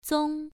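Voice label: "zong1", Chinese pronunciation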